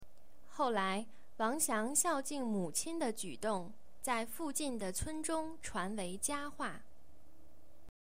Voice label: Mandarin Chinese